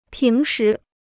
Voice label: "平时", Chinese

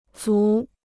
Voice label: "醋", Chinese

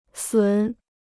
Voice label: "损", Chinese

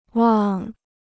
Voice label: "望", Chinese

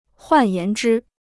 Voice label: "换言之", Chinese

